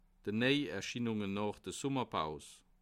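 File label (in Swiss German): "neierschienunge", Bas Rhin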